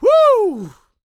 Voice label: SHOUTS, Gospel, PREACHER; Spectrasonics; Vocal Planet

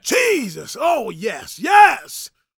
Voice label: PREACHIN, Gospel PREACHER

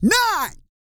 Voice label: COUNT, Vocal Planet, R&B & Dance, COUNTING; ILIO

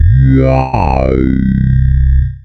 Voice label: FORMANT, Funky Vocals

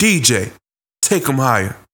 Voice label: Vocals

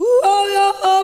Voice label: AFRA GIRL, ETHNIC; AMG; Global Trance Mission VOL-2

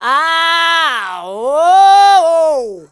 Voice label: ZULU MALE; Spectrasonics; Heart of Africa 2; S.MALEDRY

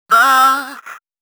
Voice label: female d120bpm, voice; FX; Mutekki Media; Dance Ultra Voice VOL-1

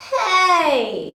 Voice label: "heey", shouts; Best Service; Hyper Dance

